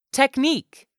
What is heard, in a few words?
"technique", english; 3000 words